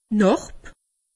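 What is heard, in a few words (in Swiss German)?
"narb", Alsatian; medecine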